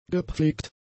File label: "gepflegt", German; adjectives